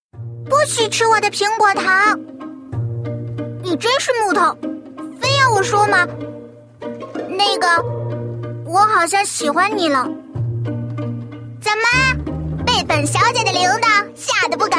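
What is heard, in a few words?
female young girl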